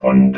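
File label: "pwned", robot voice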